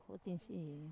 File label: "utin siri" twenty, Wamesa